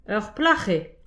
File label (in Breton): "ur plach eo", distagadur; petit precis de prononciation